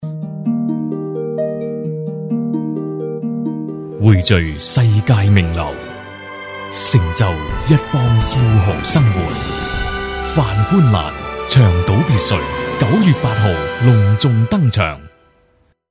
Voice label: 男粤 广告 地产 磁性; 粤语; 银湖山庄

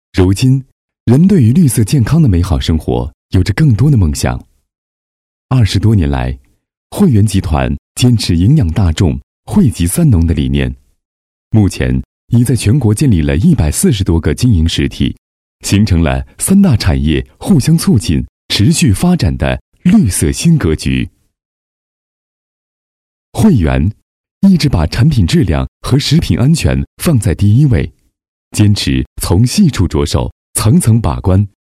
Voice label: 宣传片 企业 稳重, 男; 汇源集团

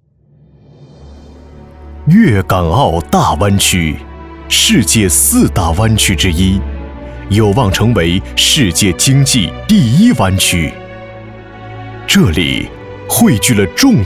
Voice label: 宣传片 招商 舒缓; 粤港澳

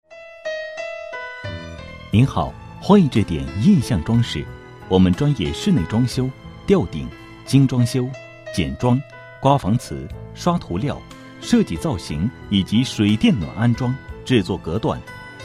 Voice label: 男国 其他 彩铃; 装饰公司

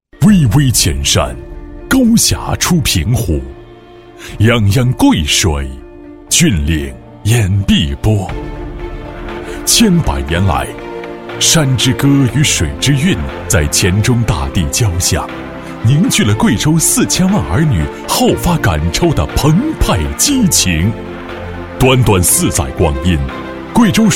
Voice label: 宣传片 旅游 磁性, 男; 黔西南州智慧旅游

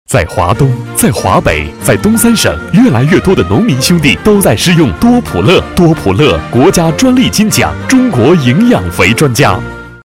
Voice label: 广告, 农化, 磁性; 多普乐

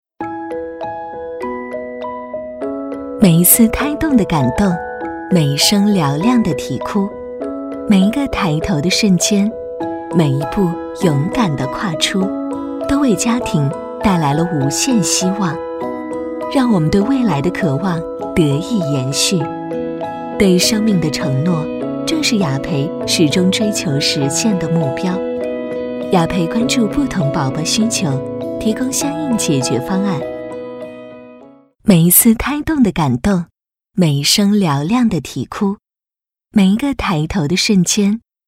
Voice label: 专题 产品 知性; 雅培

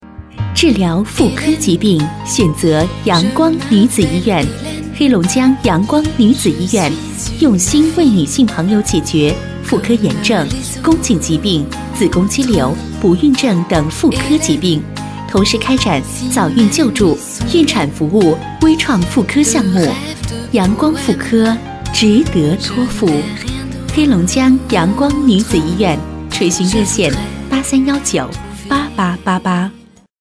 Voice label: 女国 广告 医院医药 女子医院妇科 成熟; 黑龙江阳光女子医院

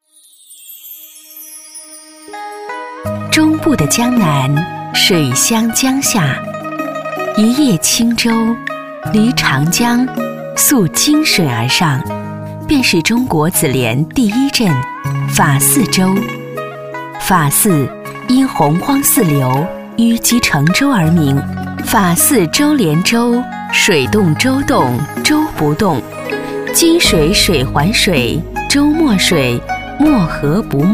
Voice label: 宣传片 旅游 水乡 讲述, 女

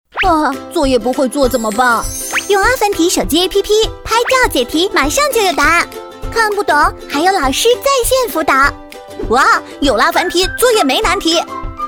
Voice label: 童声; 阿凡提app

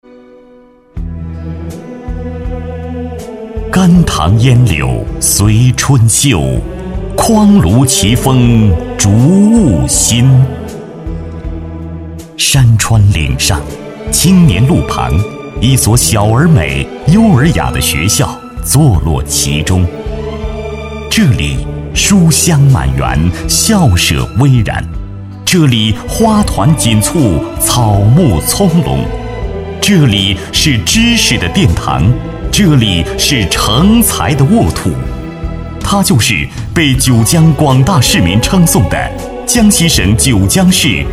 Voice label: 男国 专题 学校 抒情; 九江市中学

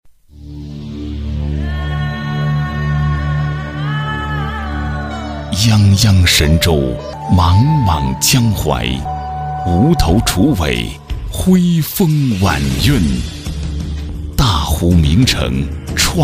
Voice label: 专题 汇报 政府工作汇报 力度, 男

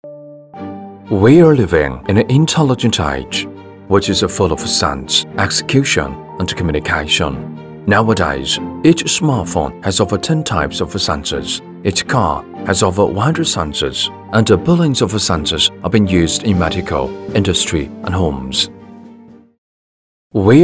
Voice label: 男英 外语 国内英语 宣传片 稳重; 宣传片奥迪威

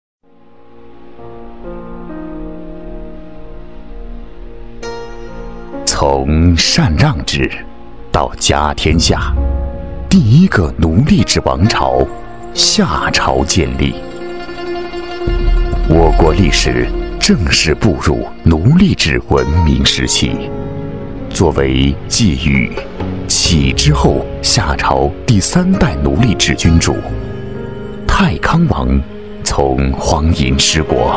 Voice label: 纪录片 人文历史, 男国; 探访夏王陵寝

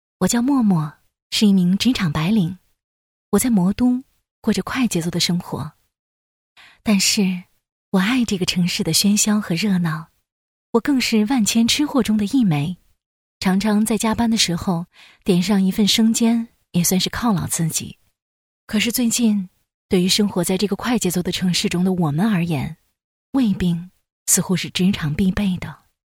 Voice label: 其他 微电影 20岁内心独白; 20岁内心独白好礼

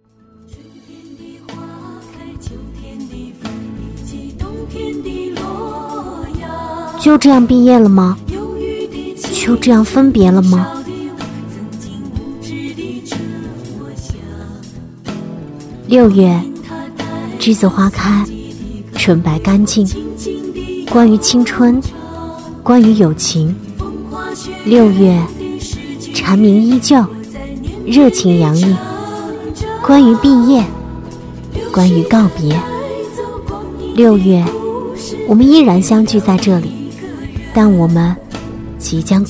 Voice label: 微电影 少女音; 毕业

